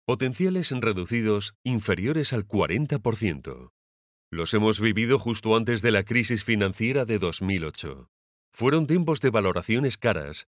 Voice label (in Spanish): locutor spanish voiceover